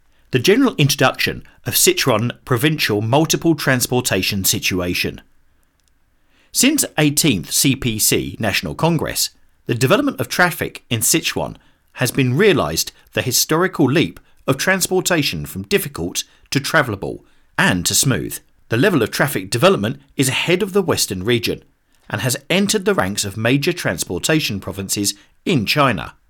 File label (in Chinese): 外籍男-汇报片-英式英语; Sichuan; 四川汇报片